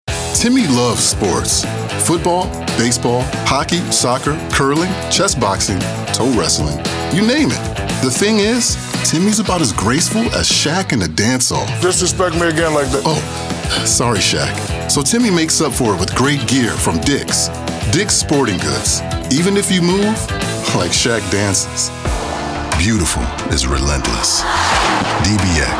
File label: Voice Over Actor Commercial